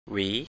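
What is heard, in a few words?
"หวี" Thai, rising tone, male; 'comb'; wǐ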